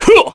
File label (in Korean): Vox Skill, kr; Clause; kingsraid